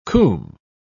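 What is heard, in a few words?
"coomb", British English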